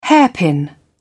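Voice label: "hairpin", British English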